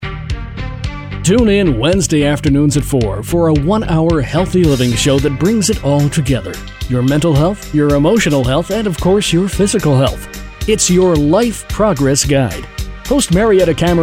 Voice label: radio promo